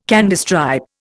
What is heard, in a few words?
"Candystripe", Indian